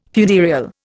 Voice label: "funereal", Indian